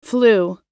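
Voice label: "flew", American English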